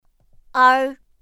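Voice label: "er", Mandarin Chinese pinyin; pinyin2; pinyinji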